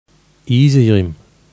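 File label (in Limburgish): "Iezejriem", kerkraads dialect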